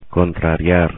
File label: "contrariar", Spanish; infinitive